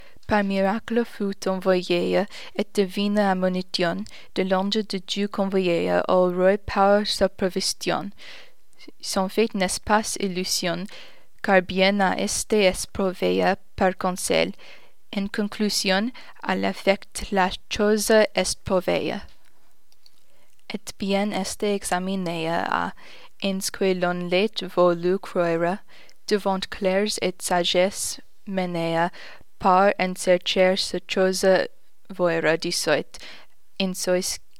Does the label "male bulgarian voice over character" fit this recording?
No